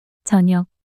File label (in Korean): "저녁" 단어 여자